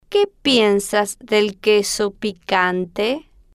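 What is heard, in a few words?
que piensas de queso picanta, Spanish, female, slow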